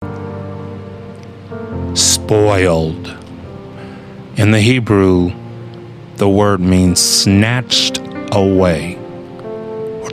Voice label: Meditation; soul